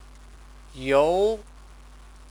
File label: "you", Chinese; post, mail; verb